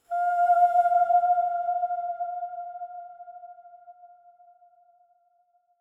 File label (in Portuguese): voz aguda rápida